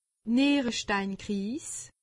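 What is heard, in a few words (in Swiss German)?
"nieresteinkriis", Alsatian; medecine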